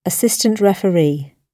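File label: "assistant referee", English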